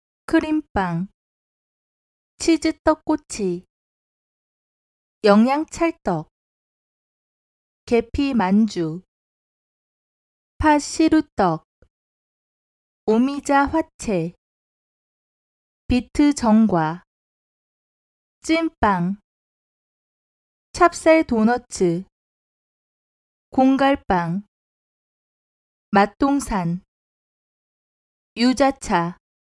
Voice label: 韩语女 课件词汇

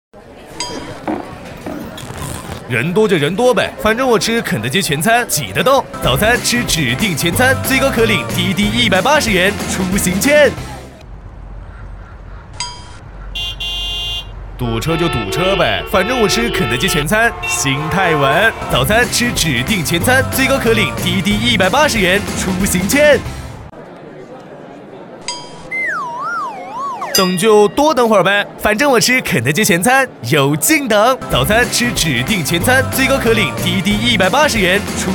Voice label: 男 年轻促销 广告; 肯德基

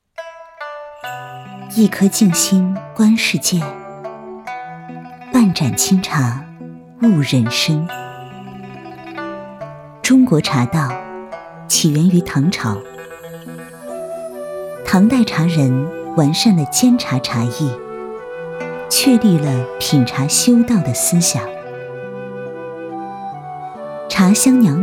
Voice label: 朗诵 古风

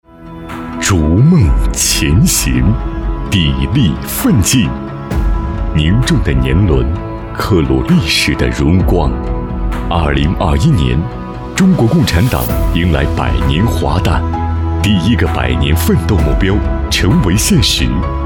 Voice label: 男 专题 逐梦前行 砥砺奋进

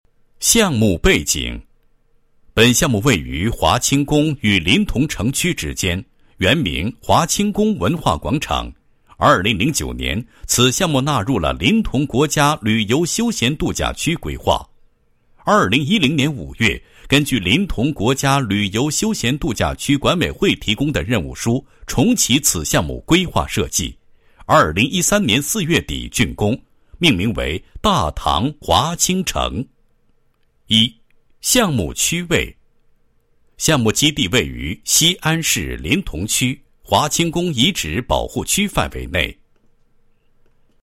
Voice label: 男-项目介绍; 清宫文化广场项目介绍

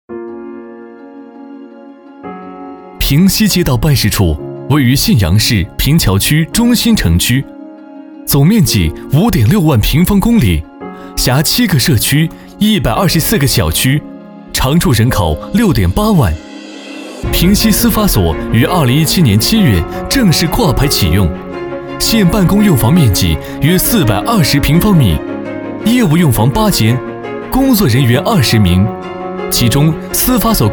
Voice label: 男 宣传

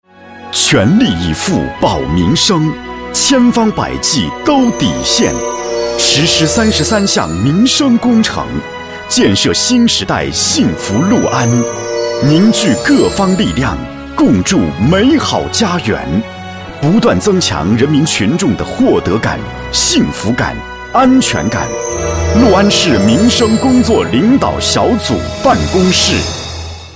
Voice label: 男 浑厚大气 政府企业城市民生宣传片

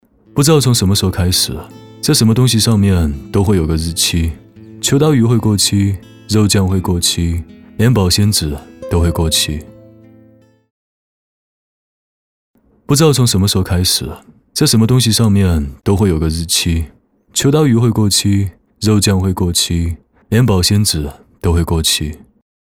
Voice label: 男 台湾腔; 秋刀鱼会过期

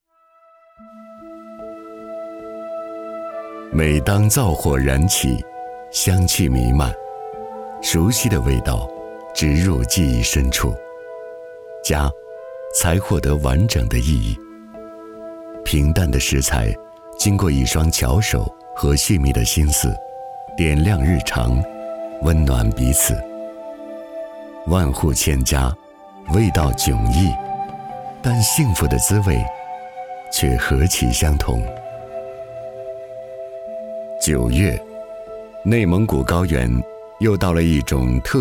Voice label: 男 纪录片 舌尖风格

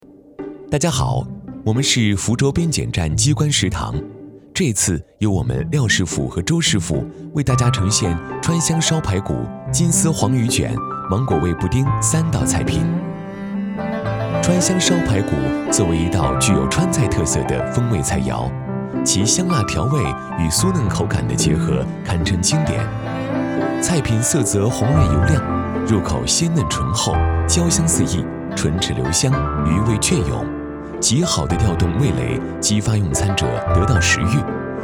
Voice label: 男 纪录片 川香烧排骨

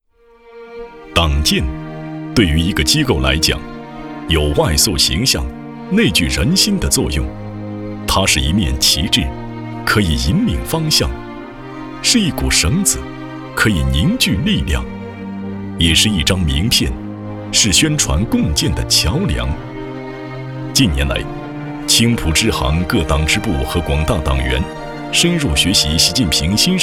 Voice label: 男 党建汇报类; 中国工商银行

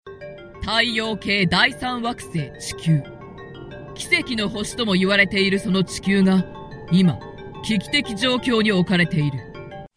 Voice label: 角色模仿-低沉严肃

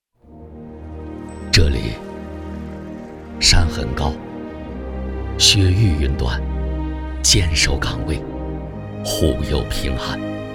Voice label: 男; 立贡嘎之巅; 守心中家园

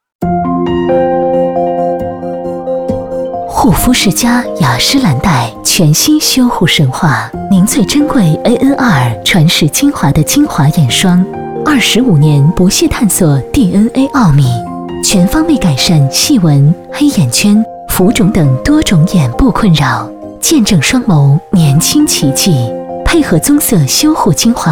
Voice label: 女 广告 化妆品; 雅诗兰黛

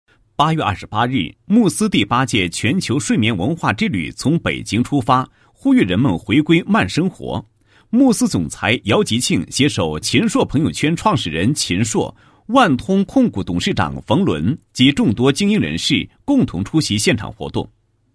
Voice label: 男, 企业; 慕斯睡眠文化之旅

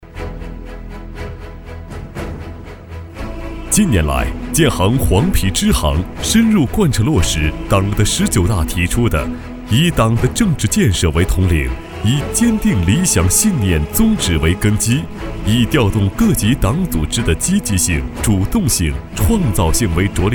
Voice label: 男 专题 浑厚大气; 建行

